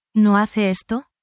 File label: spanish, spanish phrases